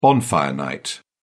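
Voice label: "bonfire night", British English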